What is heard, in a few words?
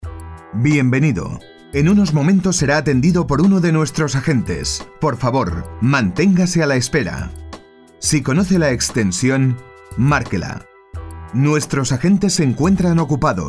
spanish voiceover locucion centralita telephone